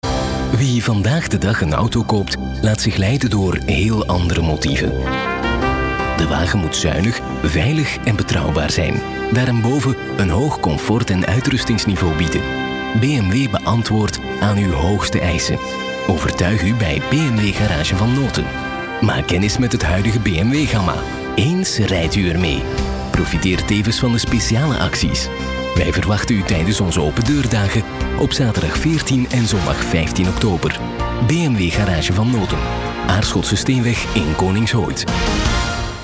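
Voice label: flemish male commercial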